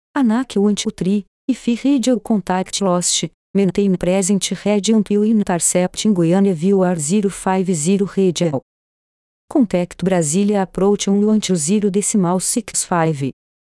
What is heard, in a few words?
Air traffic Controller Situation; simulador test